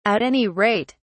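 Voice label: "at any rate", British English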